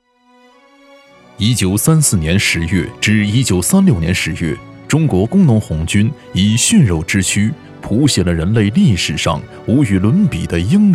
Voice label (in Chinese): 纪录片; 中国工农红军